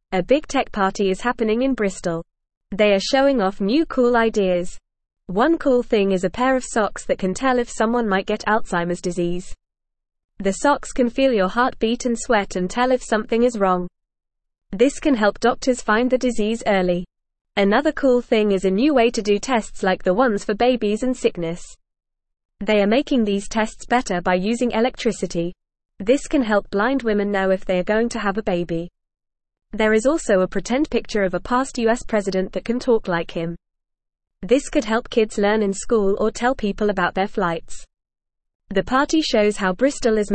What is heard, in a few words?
English Newsroom Beginner FAST Reading; Exciting Tech Party in Bristol Cool Ideas Revealed